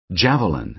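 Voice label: "javelin", American English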